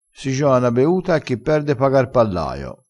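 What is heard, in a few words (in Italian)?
"pallaio", dialettale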